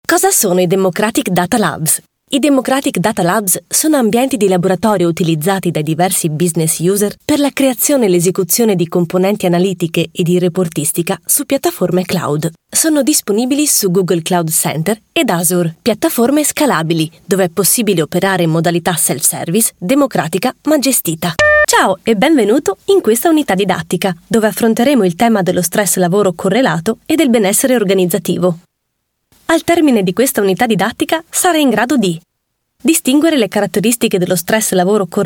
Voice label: italian voice over female elearning